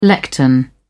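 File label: "lectern", British English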